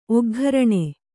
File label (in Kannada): "ಒಗ್ಘರಣೆ", Kannada